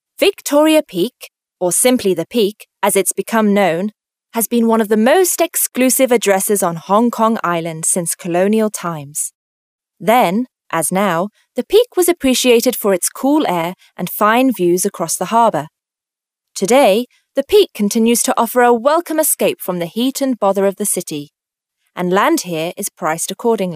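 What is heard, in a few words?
British English female corporate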